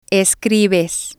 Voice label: "escribes", Spanish